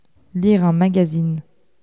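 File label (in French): "lire un magazine", French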